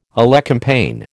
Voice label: "elecampane", American